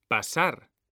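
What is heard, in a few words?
"pasar", Spanish; mekanta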